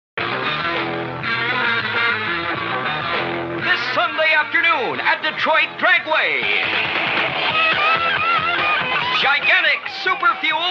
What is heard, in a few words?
Commercial; Detroit Dragway